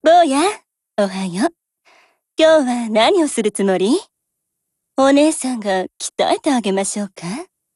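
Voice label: HELLO Japanese, Voice; GF Alarm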